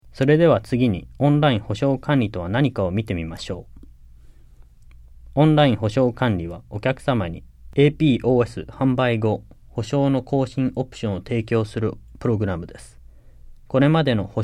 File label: JAPANESE; delltranslations